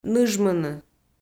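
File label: "ныжмыны", Komi, female; audio dict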